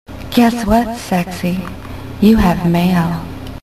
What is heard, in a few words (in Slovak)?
sms hlásky en